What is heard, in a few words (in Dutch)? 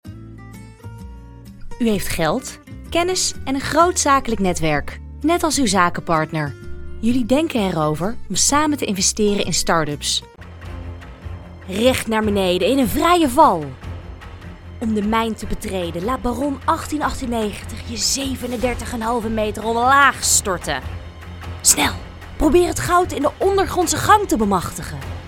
voice over